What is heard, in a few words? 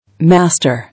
"Master", English